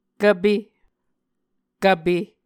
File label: "kvpe", Muscogee